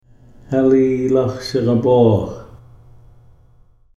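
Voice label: Halilax sigaboox, Ts’msyen; gravel bar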